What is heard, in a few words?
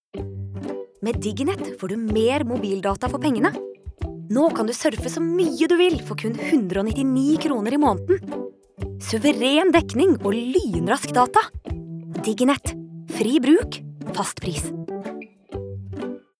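no commercial, Norwegian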